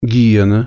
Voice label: "hyena", Russian

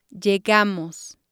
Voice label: "llegamos", spanish

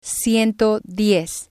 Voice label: "ciento diez", spanish

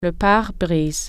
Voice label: "windshield", french

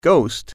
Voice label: "ghost", English

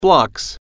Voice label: "blocks", English

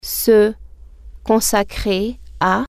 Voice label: devote oneself, french

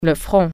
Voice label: forehead, french

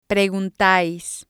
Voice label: "preguntais", spanish

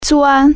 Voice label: "drill", Chinese

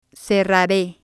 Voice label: "cerrare", spanish

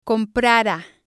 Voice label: "comprara", Spanish

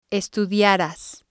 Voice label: "estudiaras", spanish

